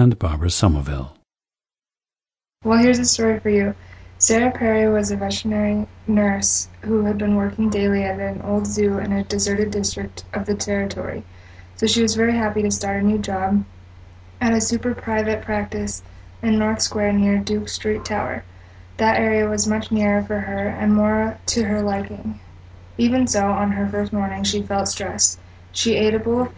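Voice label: colorado, International Dialects of English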